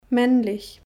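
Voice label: "männlich", German; Kapitel 2; Alphabet erste Schritte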